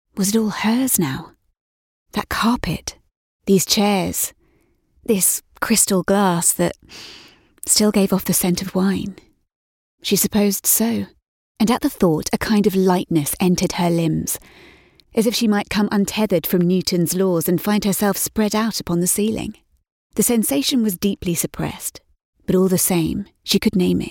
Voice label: fiction audiobook

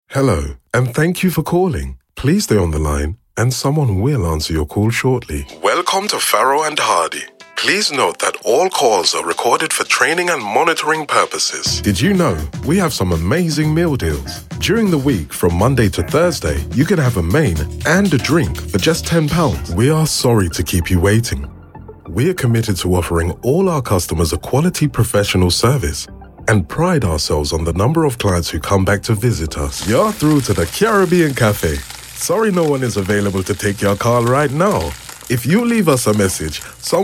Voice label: multicultural voice telephone

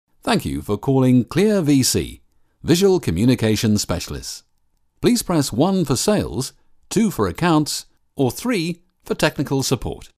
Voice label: on-hold

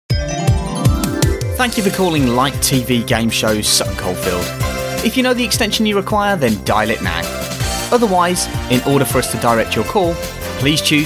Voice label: telephone

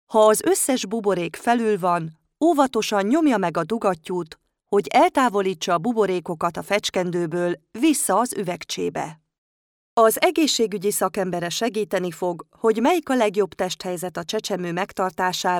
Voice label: hungarian female corporate

